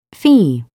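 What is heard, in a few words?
"fee", English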